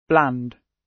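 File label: "bland", British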